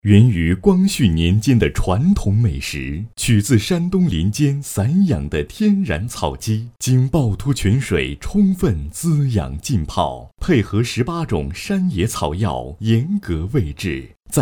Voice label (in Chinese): 男80 广告; 十八代小胡同炒鸡; 国语男声配音; 央视农广